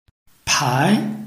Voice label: "pai2", Chinese

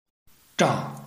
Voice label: "zha4", Chinese

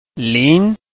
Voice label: "lin2", Chinese

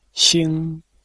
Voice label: "xing1", Chinese

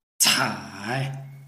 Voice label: "cai3", Chinese

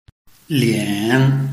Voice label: "lian3", Chinese

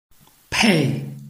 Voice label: "pei4", Chinese

